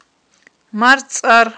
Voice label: "марцIар", Aghul